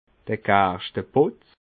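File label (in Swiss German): "gerschteputz", Alsatian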